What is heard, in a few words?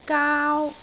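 "gaau1", Cantonese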